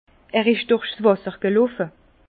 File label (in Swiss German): "wasser", Bas Rhin; vin